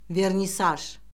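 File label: "vernisaj", Azerbaijani; Orfoqrafiya Lüğəti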